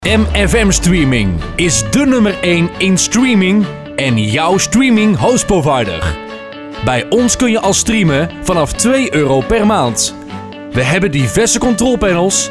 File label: Commercial